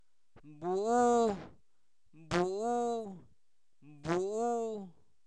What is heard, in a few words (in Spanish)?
carbón, Zapoteco, santo domingo albarradas